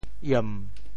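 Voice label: "iem7", Teochew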